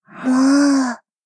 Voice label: angry